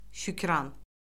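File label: "şükran", Azerbaijani; Orfoqrafiya Lüğəti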